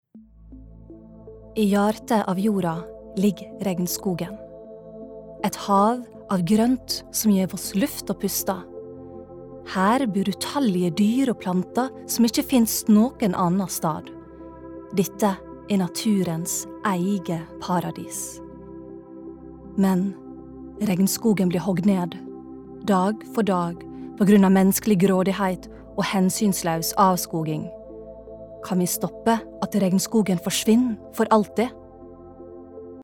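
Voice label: documentary, norwegian, female; dokumentar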